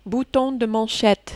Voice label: "bouton de manchette", French

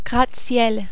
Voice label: "gratte ciel", French

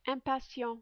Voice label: "impatient", French

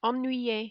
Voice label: "ennuye", French

